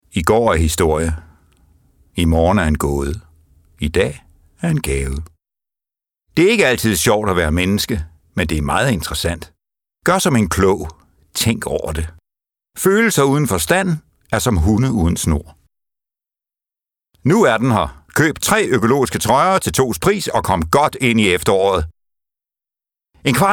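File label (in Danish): Danish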